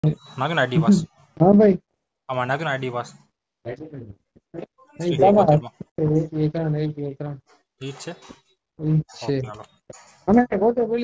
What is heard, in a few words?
Call recording